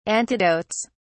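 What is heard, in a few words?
"antidotes", British English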